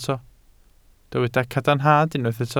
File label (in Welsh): "cadarnhad", Welsh